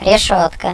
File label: Rus Devushka, zvuki klavish; Mobile; Motorola MPX200